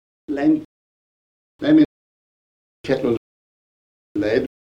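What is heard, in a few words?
kent stoke male trap, accents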